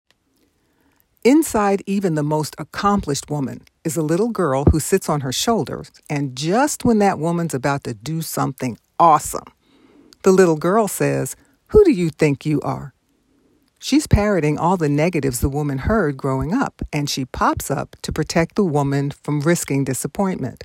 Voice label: Recording, Audiobooks Inspirational